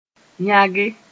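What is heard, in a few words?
"yãge", Mossi; to flash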